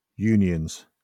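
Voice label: "unions", British English; pronunciations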